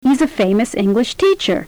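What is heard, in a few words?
He's a famous English teacher Non-Compound, Stress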